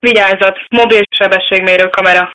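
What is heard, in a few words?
warning, Hungarian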